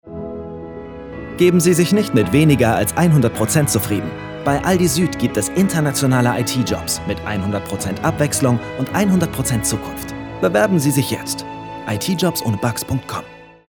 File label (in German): Freundlich; Aldi